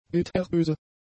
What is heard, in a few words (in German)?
"bitterböse", German; adjectives